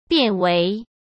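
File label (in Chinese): "变为", Mandarin Chinese; hsk; chinese flashcards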